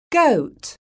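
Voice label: "GOAT", English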